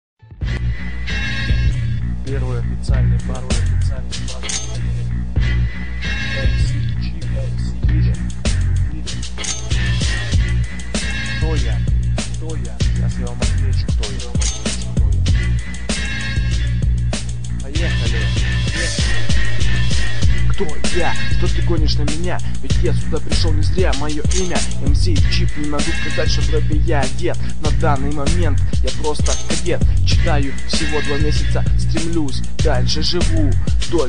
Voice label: battle rap